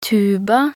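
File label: "tuba", Norwegian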